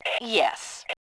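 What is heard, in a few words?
"yes", voice, female; Tribes2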